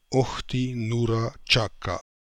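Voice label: "Okhti Noora Chaka", pronunciations; Fourth Way; Beelzebub pronounciations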